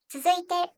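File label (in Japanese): "tsuzuite", Japanese; kumiawase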